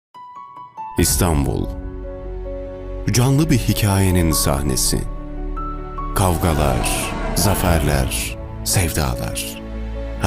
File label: voiceover; murat kurum